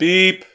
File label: Voices urgent; gamerip; The Looker